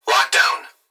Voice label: Human Young Male Voice Plant Security Dispatch; Lock Down; Emergency

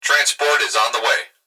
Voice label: Human Male Voice Police Dispatch; Emergency

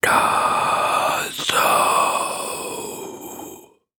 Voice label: Horror Scary Human Whisper Ghost

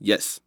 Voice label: "Yes", Human Male Quick

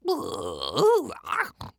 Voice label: Cartoon Human Male Weird Voice; AdobeCC; Yoda

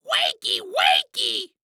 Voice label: Cartoon Voice "Wakey Wakey" Scream; AdobeCC

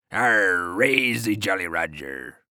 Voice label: Human Pirate Say Jolly Roger

